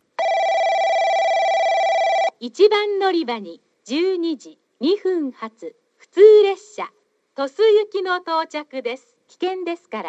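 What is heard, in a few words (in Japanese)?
接近放送＋ベル 女声（接近放送は2回流れている。）; 2012年に導入された教育大前のもの。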